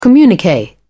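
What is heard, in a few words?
"communique", British English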